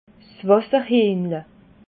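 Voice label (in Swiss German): "wasserhiehnle", Alsatian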